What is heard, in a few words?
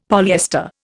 "polyester", Indian; Dictionary